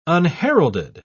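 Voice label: "unheralded", British English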